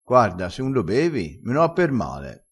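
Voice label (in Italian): "avessene a permale", dialettale crespinese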